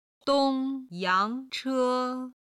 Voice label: "dong1 yang2 che1", Chinese